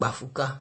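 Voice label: "kpafuka", Nigerian Pidgin, male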